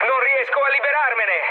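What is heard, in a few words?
Italian aircraft damaged mood med, speech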